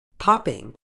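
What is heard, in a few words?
"popping", medical English, British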